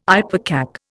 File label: "ipecac", Indian